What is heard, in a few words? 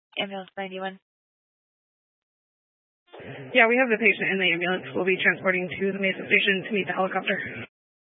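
Incident Tactical 1, Scanner audio recording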